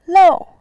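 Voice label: "lou", Mandarin, tone 4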